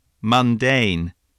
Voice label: "mundane", voice